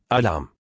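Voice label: "Alarm", German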